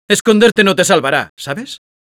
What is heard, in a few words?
Spy taunts, es